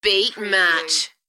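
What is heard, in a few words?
UK female, voice drop; Beat match; beanstalk audio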